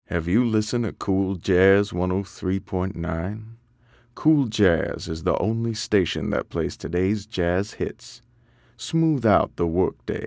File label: English, Smooth, Elegant, Sultry